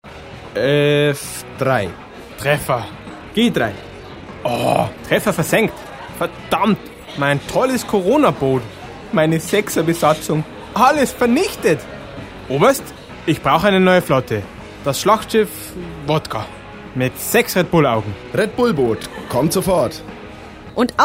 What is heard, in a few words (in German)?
Werbung; Alcedo